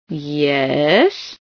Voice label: "yees", female